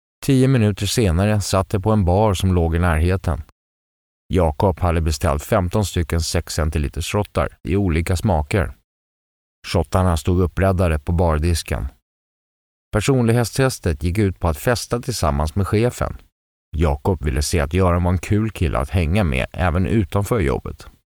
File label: locutor sueco swedish voiceover